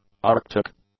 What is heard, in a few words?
"arctic", English; adjectives